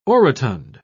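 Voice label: "orotund", British English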